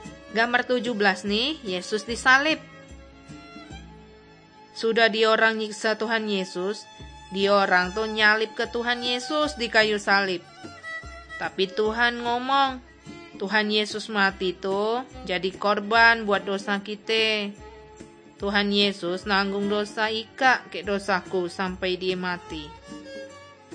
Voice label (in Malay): kabar baik good news malay bangka